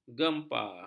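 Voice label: "gempa", Malay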